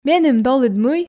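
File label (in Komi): меным долыд мый, Komi, female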